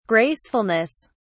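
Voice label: "gracefulness", English, British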